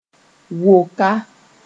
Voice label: "woaka", moore

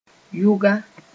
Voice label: "yʋga", moore

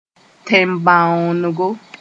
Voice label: "tẽn-bãoonego", Moore

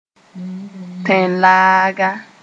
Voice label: "tẽn-laaga", Moore

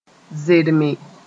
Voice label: "zerme", moore

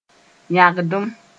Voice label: "yãgdem", moore; urgent

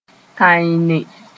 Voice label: "tãeene", moore

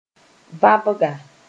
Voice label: "vãbga", moore

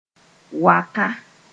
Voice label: "waka", Mooré; dried out

